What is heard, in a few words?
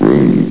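"room", announcer voice; Source Engine; bshift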